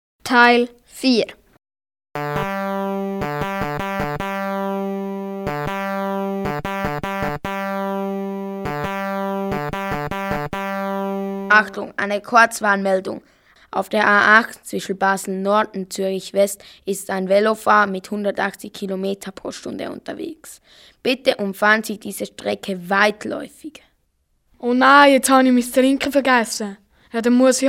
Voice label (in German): Hoerspiel; Minutengeschichten